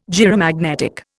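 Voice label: "gyromagnetic", Indian English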